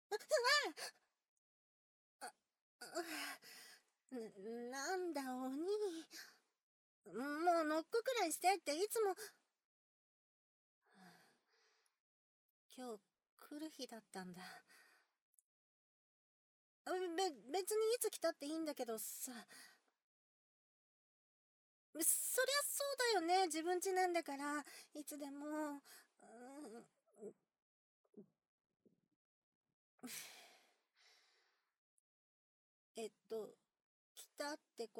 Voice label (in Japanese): 淫語, ASMR; 即ヌキ